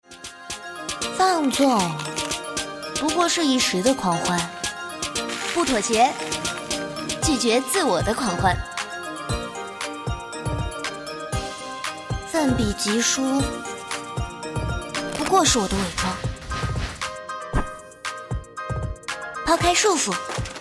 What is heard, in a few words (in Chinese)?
广告, 乖乖女生